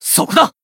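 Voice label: finish, Japanese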